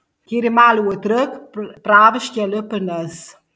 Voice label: Resian (San Giorgio, Resia, Provinz Udine); Kárje málo wotrök pravi šćalë́ pö nas; AlpiLinK